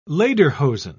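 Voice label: "lederhosen", British English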